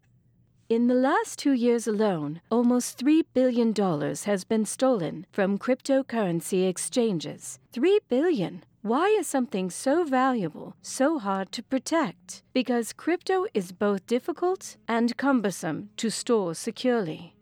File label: Crypto Commercial